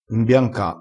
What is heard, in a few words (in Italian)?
"imbianca", crespinese